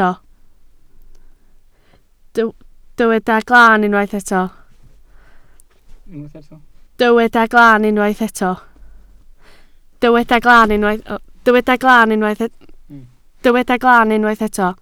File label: "glan", Welsh